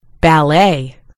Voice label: "ballet", en US; gcompris

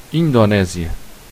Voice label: "indonesia", pt; gcompris; geography

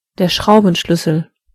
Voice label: wrench, de; gcompris

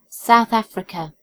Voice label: "south africa", en GB; gcompris

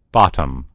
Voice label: "bottom", en US; gcompris

